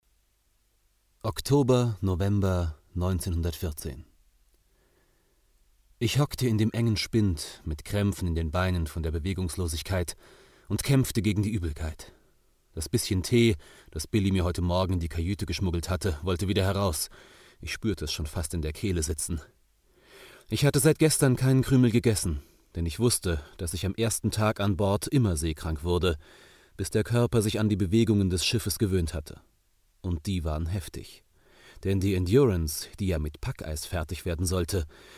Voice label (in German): Hoerbuch, deutsch maenner; sprecher